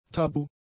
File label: "tabu", German; adjectives